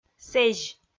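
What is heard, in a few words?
"сэзь", Komi, female; kpv audio dict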